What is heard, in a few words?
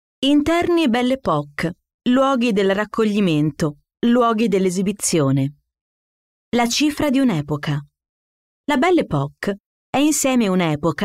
audioguide